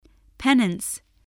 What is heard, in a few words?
"penance", English, voice